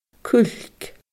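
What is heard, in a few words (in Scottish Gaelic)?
"cuilc", Scottish Gaelic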